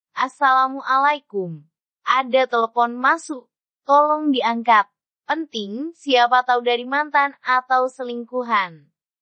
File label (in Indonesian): suara asli lucu